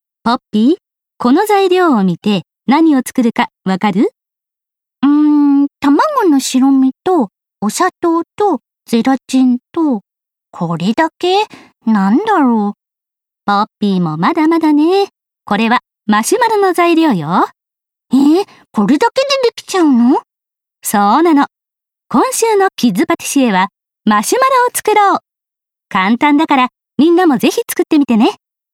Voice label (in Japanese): 番宣